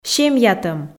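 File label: "семьятӧм", Komi, female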